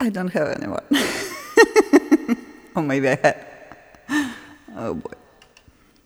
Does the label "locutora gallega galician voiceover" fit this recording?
No